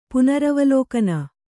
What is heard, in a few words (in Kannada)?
"ಪುನರವಲೋಕನ", Kannada